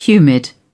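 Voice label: "humid", British English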